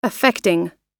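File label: "affecting", US English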